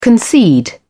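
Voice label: "concede", British English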